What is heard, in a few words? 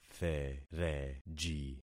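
"thereji", concatenated syllables; Affixing experiment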